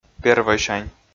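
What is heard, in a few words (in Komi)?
"первойсянь", Komi, male; audio dict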